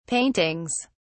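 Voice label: "paintings", British English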